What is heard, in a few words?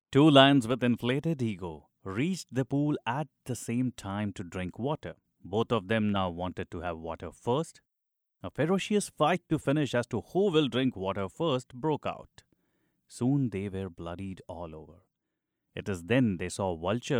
male indian voice over narrative